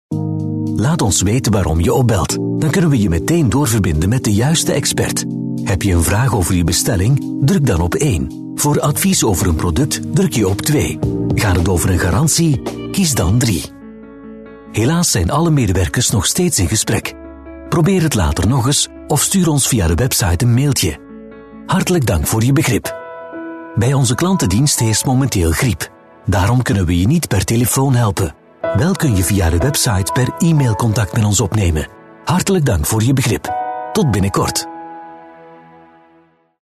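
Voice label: Flemish, male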